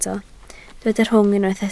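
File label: "rhwng" Welsh, spoken word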